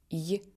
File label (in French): son yi, russe